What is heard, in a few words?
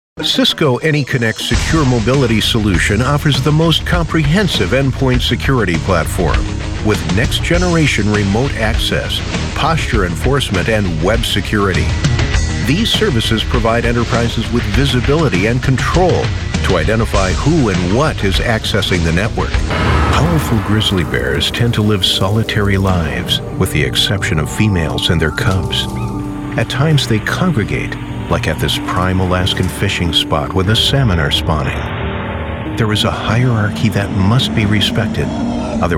Narrations